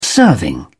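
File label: "serving", British English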